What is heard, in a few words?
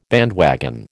"bandwagon", American